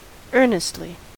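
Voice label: "earnestly", US English; pronunciation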